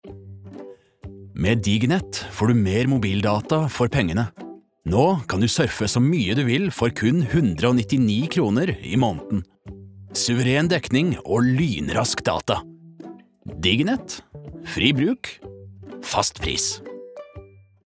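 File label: Norwegian commercial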